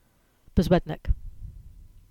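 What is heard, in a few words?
"Boswednack", English; place-names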